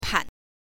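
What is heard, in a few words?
"pan", Mandarin pinyin, neutral tone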